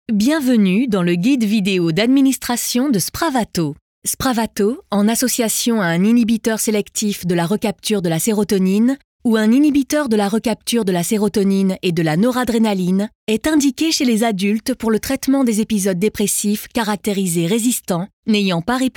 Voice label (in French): Voix Institutionnel; Laboratoire Spravato; film institutionnel